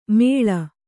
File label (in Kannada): "ಮೇಳ", Kannada